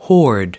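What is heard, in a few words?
"hoard", American English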